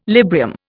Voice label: "Librium", Indian

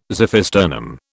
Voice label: "xiphisternum", British

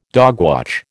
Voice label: "dogwatch", American

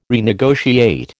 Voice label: "renegotiate", American

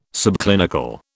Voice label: "subclinical", British

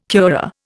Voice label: "curare", Indian

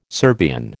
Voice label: "Serbian", American

